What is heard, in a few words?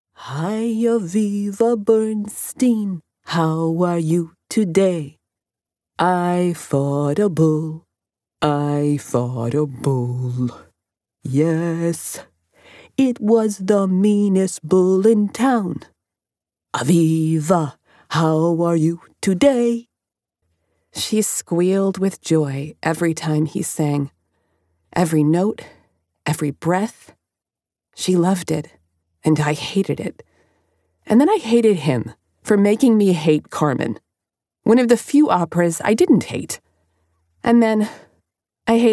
Singing NY M M F dialogue